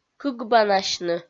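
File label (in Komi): "кыкбанасьны", Komi, female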